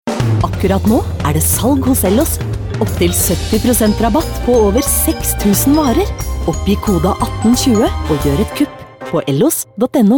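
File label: norwegian female, voice samples commercial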